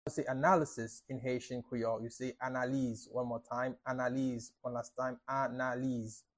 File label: How to say Analysis in Haitian Creole Analiz pronunciation by a native Haitian teacher